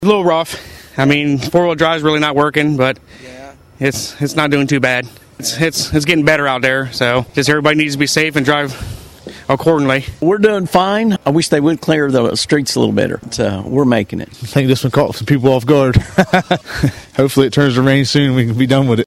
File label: vox pop; snow